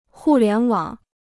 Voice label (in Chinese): "互联网", Chinese